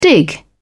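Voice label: "dig in", en, British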